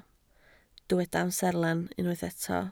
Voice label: "amserlen", Welsh

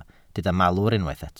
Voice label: "malwr", Welsh

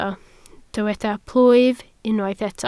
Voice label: "plwyf", Welsh

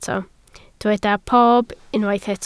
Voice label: "pob", Welsh

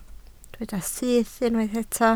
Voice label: "syth", Welsh

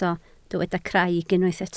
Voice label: "craig", Welsh

